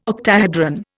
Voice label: "octahedron", Indian